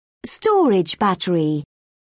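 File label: "storage battery", English, British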